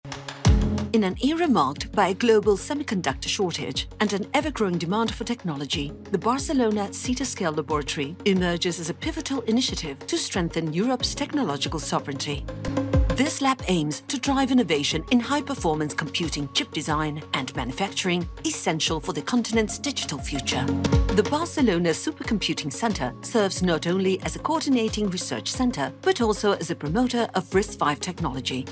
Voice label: english british medical narration